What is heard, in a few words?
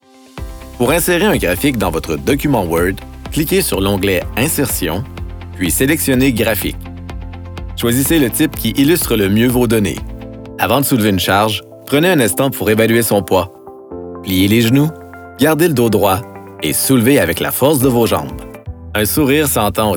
Elearning